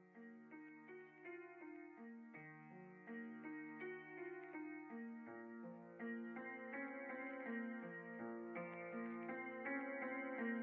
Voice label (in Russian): русский рэп